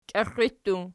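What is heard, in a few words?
"kixxii" plural, Archi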